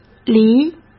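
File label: "梨", Mandarin Chinese; chinese flashcards; hsk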